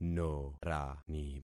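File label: "norani", concatenated syllables; Affixing experiment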